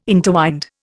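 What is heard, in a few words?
"interwind", Indian English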